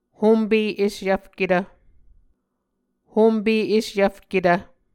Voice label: "hompe esyvfketv", Muscogee